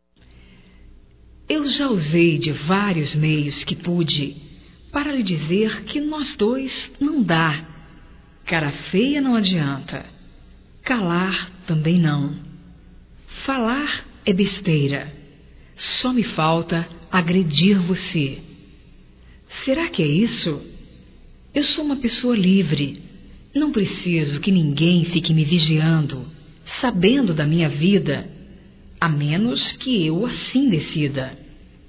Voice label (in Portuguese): LARGA DO MEU PE, Românticas fem